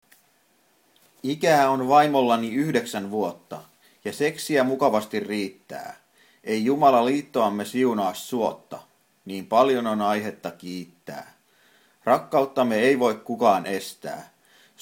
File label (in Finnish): esimerkillinen avioliitto, poetry